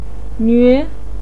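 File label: "nüe", Mandarin Chinese second tone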